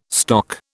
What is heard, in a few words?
"stock", British